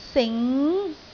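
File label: "sing2", Cantonese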